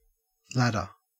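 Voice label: "ladder", Australian English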